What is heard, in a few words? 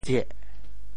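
"tsieh4", Teochew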